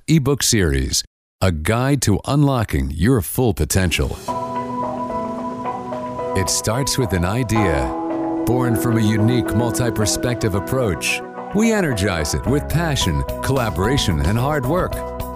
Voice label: Business Corporate